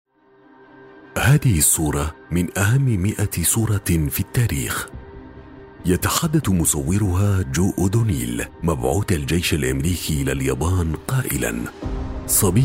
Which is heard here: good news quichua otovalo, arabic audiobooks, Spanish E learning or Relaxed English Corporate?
arabic audiobooks